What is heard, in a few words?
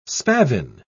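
"spavin", British English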